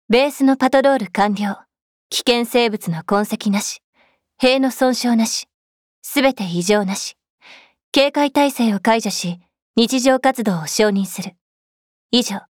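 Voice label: voice Japanese welcome; Rhythm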